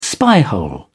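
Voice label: "spyhole", English, British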